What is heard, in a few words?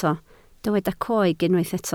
"coeg", Welsh